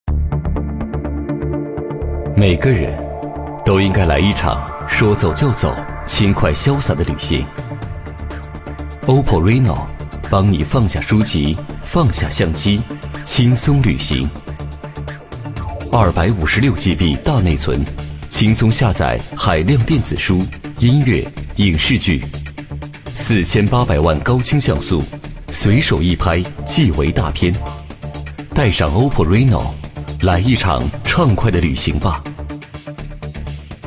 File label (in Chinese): 男6号广告; OPPO